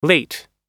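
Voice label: "late", American English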